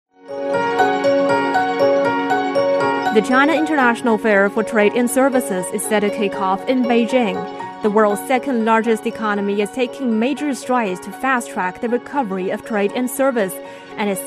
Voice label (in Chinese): 宣传片花; 北京服贸会宣传片花